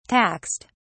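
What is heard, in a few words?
"taxed", British English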